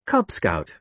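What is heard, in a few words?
"cub scout", British English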